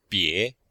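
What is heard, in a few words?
"bie2", Mandarin Chinese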